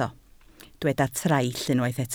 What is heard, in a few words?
"traill", Welsh